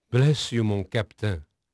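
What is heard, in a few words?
French male, voice; Pirates Of The Caribbean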